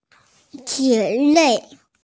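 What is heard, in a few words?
"jie mei" Chinese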